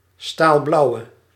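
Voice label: "staalblauwe", Dutch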